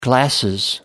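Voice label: "glasses", British English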